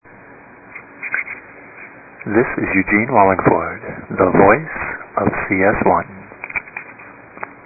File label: the voice raw